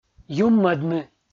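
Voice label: "юммӧдны", Komi, male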